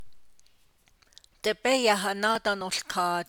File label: navajo; Bring the sheep in again